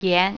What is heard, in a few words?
"salt", Mandarin